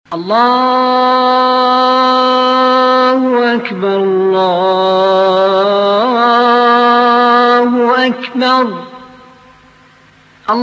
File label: hejaz, Quran; naghamat